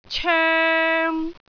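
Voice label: "coeng3", Cantonese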